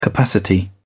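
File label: "capacity", British English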